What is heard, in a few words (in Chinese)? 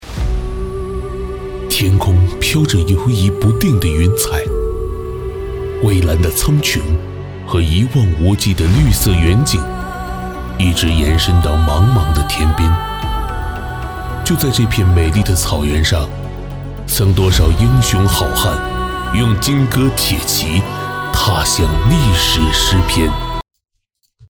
大气; 背景古代